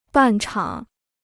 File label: "半场", Chinese